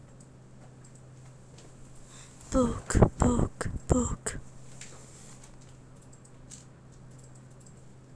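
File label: "book", spoken word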